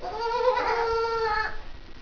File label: kids "Ma"